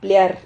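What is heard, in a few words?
"pliar", Spanish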